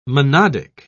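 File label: "monodic", English, British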